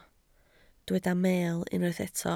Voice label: "meel", Welsh

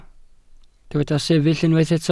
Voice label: "sefyll", welsh

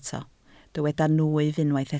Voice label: "nwyf", Welsh

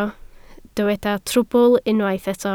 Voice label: "trwbl", Welsh

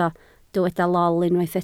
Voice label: "lol", Welsh

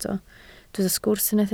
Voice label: "sgwrs", Welsh

